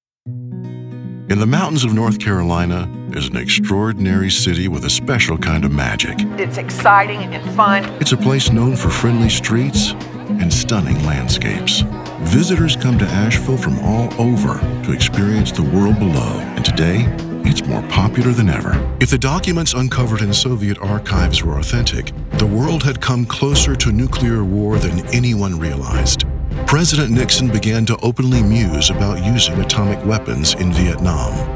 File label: TV Narration